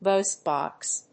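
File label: "voice box", English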